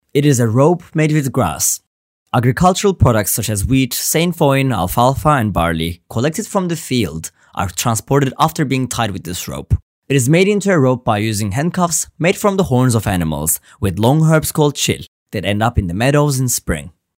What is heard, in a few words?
english